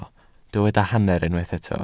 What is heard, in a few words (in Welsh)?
"hanner", Welsh